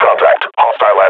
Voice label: under attack, combine grunt; Half Life Model Pack